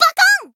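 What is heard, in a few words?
attack, Japanese; may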